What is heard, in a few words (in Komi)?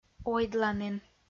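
"ойдланін", Komi, female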